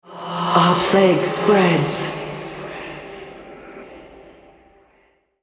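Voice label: Altar Capture Female, en US; ShadowIsles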